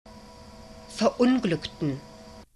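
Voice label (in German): "verunglückten", German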